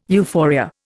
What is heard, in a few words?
"euphoria", Indian